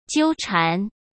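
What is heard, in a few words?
"纠缠", Mandarin Chinese; chinese-flashcards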